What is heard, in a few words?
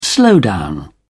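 "slowdown", British English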